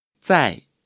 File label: "在", Chinese